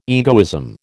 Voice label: "egoism", American